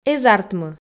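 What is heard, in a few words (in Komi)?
"эз артмы", Komi, female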